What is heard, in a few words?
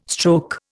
"stroke", Indian